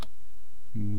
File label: "muu", east cree syllabics